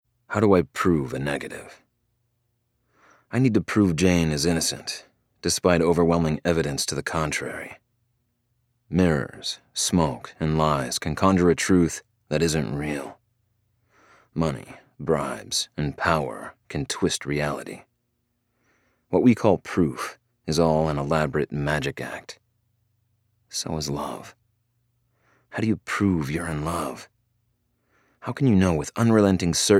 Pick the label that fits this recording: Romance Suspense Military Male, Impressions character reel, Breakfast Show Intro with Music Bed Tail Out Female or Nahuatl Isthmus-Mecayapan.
Romance Suspense Military Male